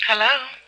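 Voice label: "hello", male; introductions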